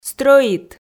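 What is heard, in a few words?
"стрӧит", Komi, female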